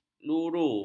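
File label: "luru", Malay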